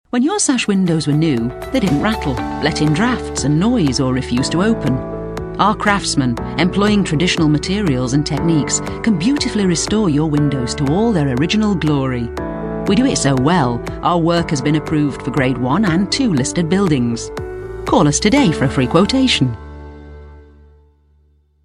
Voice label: derbyshire accent

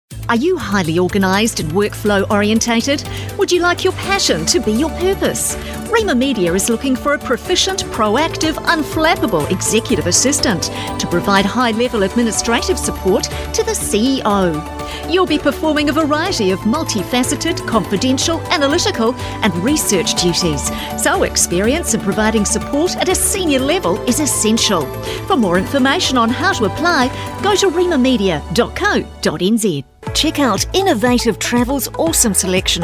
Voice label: new zealand commercial

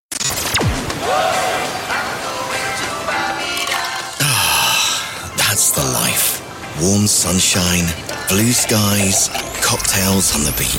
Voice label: radio imaging ac format

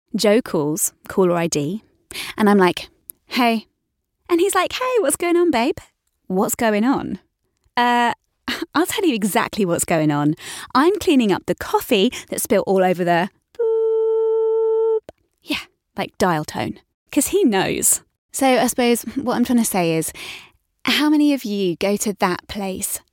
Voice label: natural

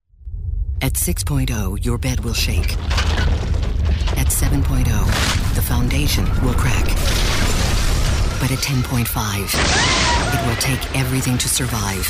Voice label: american female tv promo

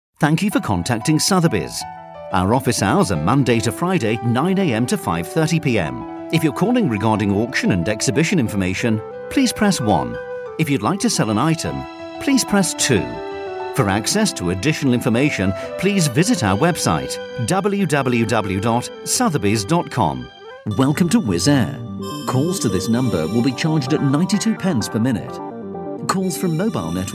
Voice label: telephone on-hold and ivr

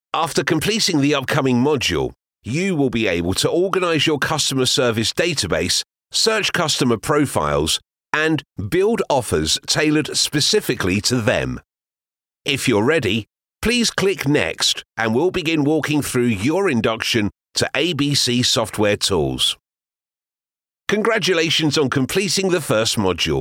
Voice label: e-learning

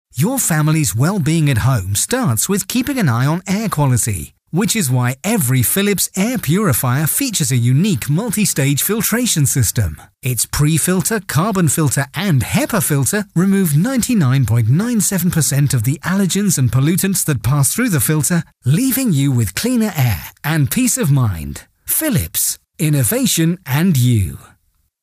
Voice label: corporate narration

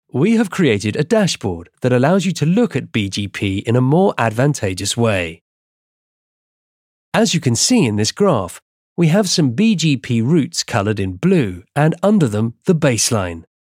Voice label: e-learning explainers dry

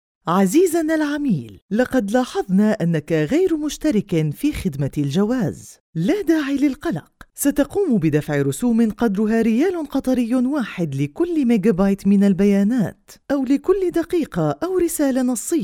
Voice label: arabic female arabic ivr

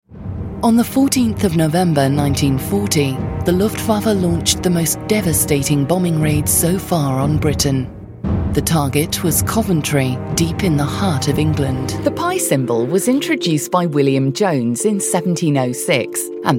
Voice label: narration

